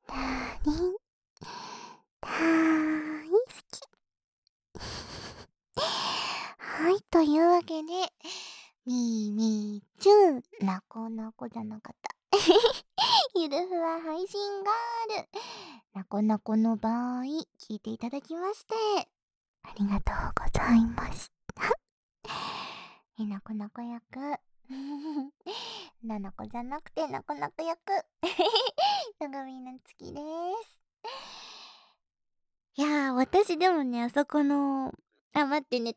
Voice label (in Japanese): フリートーク; ASMR